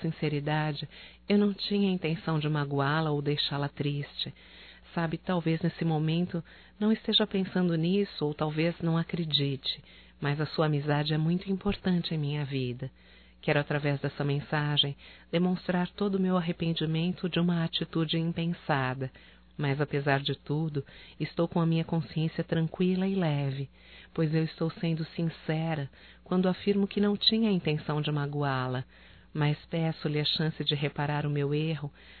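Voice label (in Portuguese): Desculpas amiga female, Só Voz; Telemensagens; AMIZADE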